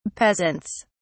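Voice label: "peasants", British English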